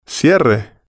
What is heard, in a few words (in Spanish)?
"cierre", spanish